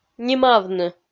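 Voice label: "нимавны", Komi-Zyrian, female; kpv audio dict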